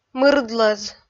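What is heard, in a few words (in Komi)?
"мырдлӧз", Komi, female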